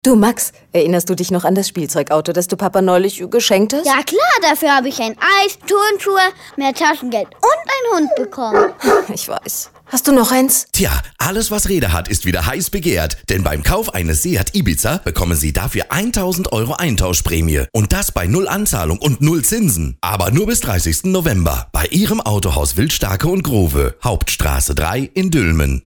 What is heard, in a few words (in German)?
Spot; Wilstacke und Growe Seat Eintauschpremie